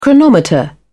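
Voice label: "chronometer", British English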